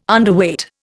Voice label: "Underweight", Indian

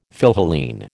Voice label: "Philhellene", American

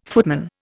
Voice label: "footman", Indian

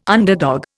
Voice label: "underdog", Indian

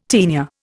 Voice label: "taenia", Indian

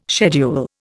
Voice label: "schedule", Indian

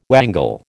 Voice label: "wangle", American

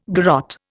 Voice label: "garotte", Indian

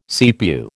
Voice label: "CPU", American

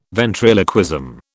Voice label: "ventriloquism", British

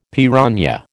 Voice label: "piranha", American

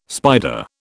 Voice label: "spider", British

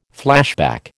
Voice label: "flashback", American

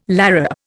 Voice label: "larrup", Indian

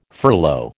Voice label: "furlough", American

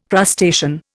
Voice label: "crustacean", Indian

